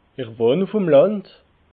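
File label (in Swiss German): "wohn uf m land", Alsatian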